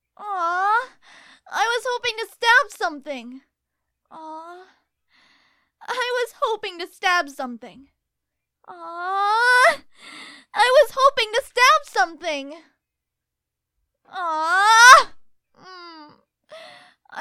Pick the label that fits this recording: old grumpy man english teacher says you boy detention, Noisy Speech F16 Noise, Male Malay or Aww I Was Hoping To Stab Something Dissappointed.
Aww I Was Hoping To Stab Something Dissappointed